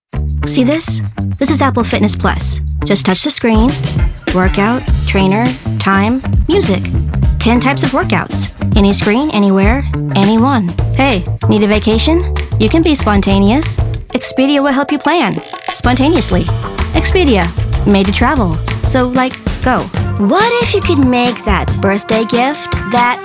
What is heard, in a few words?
Commercial